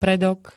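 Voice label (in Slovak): "predok", Slovak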